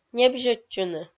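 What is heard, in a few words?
"небзьӧдчыны", Komi, female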